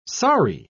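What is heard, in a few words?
"saree", British English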